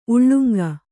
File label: "ಉಲ್ಲಂಗಿ", Kannada